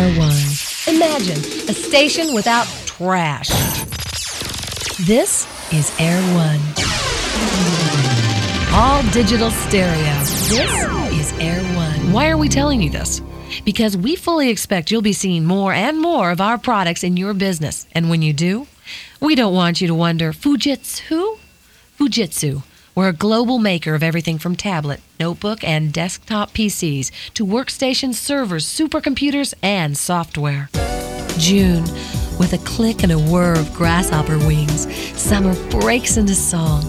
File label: commercial voice; demo